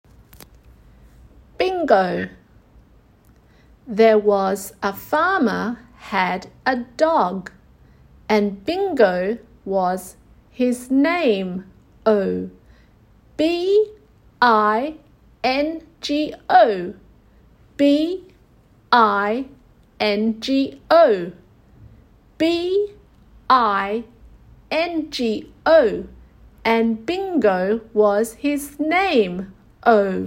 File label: ng chant, english phonics; sw phonics